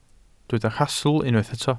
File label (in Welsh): "rhastl" Welsh